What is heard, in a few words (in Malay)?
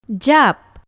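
"jap", Malay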